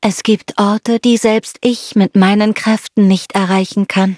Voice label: loading, de; cookie run

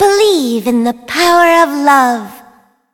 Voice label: battle en; cookie run

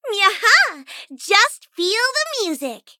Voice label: menu tap eng; dj miya; Cookie Run

